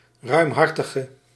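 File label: "ruimhartige", Dutch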